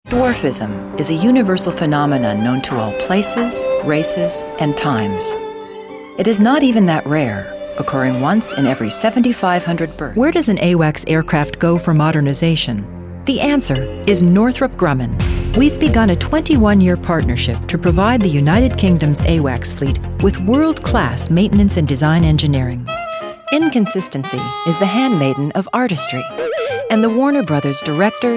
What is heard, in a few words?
Narration